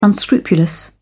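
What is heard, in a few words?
"unscrupulous", British English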